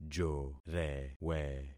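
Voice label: "jorewe", concatenated syllables; Affixing experiment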